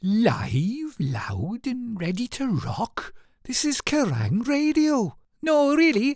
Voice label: Character Commercial